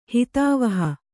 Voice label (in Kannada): "ಹಿತಾವಹ", Kannada